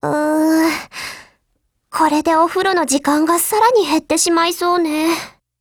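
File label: combine, Japanese; Saiga12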